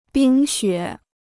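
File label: "冰雪", Chinese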